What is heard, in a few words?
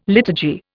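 "liturgy", Indian English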